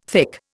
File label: "thick", Indian